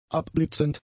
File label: "abblitzend", German; adjectives